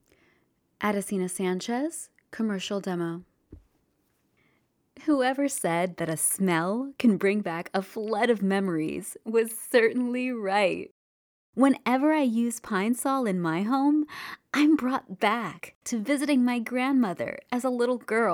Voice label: commercial